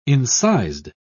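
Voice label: "incised", British English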